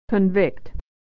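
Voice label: "to convict", American English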